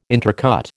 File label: "intercut", American